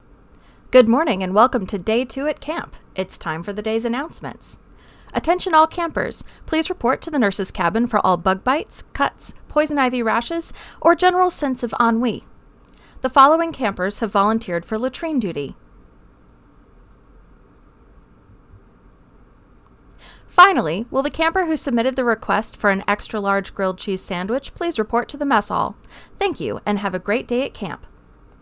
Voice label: announcements